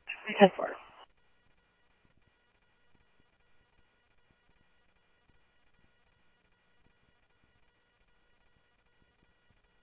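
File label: police department, scanner audio trunk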